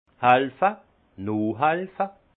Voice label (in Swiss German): "halfe", alsace; vin; aider